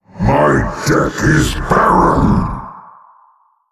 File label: Male Old God No Cards